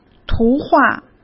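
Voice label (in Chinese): "图画", Mandarin; chinese flashcards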